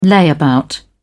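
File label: "layabout", British English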